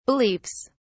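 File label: "beliefs", British English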